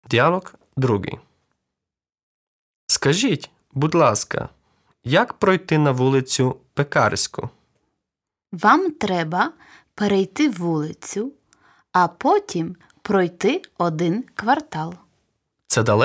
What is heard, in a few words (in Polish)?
Lekcja Dialog